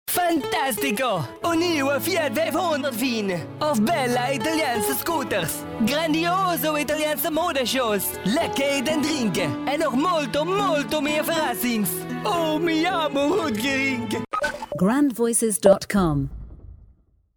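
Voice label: male Italiaans accent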